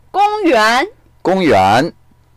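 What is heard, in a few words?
"gong1yuan2", Mandarin Chinese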